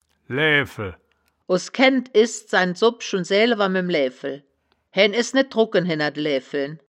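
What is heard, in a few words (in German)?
"laeaefel", wittlicher woerterbuch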